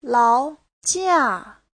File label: "lao2jia4", chinese; 劳驾 : cảm phiền; Giáo trình Hán ngữ